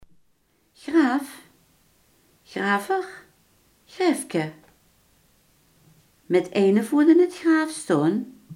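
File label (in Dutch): graf, Limburgse gesproken woordenboeken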